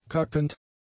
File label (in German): "kackend", German; adjectives